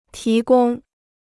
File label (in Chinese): "提供", Chinese